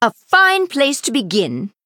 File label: Female High Elf Emote Recruit Small Minion